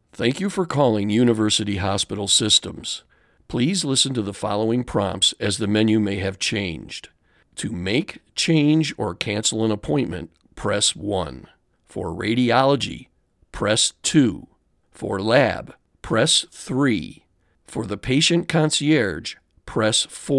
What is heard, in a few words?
extensions telephony